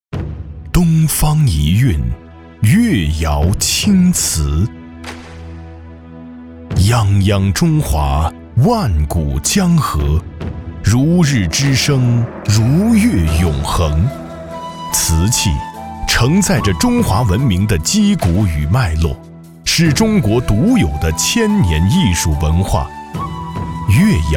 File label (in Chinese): 男 纪录片 古典大气厚重 越窑青瓷